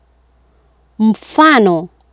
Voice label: "mfano", Swahili